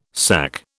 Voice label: "SAC", British